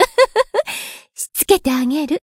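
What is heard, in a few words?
Voice encounter, Japanese; sherinu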